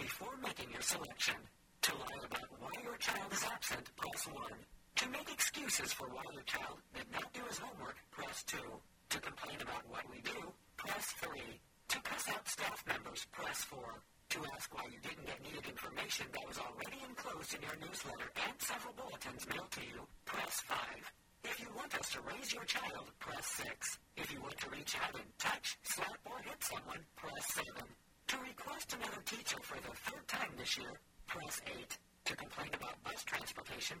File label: school answering machine message